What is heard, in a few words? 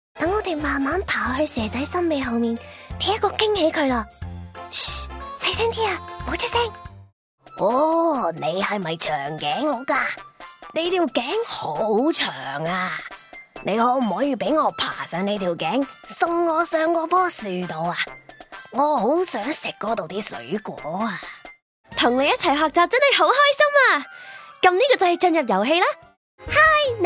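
Cantonese, toys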